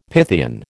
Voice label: "Pythian", American

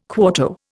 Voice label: "quarto", Indian

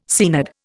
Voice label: "Seanad", Indian

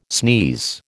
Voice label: "sneeze", American

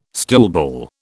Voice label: "stoolball", British

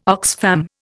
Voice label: "Oxfam", Indian

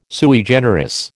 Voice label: "sui generis", American

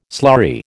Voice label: "slurry", American

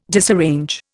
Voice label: "disarrange", Indian

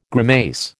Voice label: "grimace", American

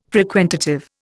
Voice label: "frequentative", Indian

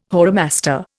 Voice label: "whoremaster", Indian

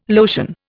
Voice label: "lotion", Indian

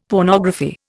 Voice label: "pornography", Indian; Dictionary

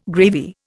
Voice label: "gravy", Indian

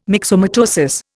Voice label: "myxomatosis", Indian

